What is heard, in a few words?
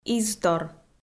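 "изтор", Komi, female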